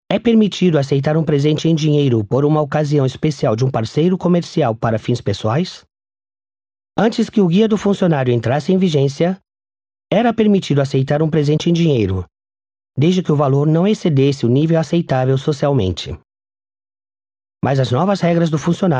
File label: Portuguese BRA M